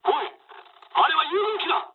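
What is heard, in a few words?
Japanese player killed ally mood low; War Thunder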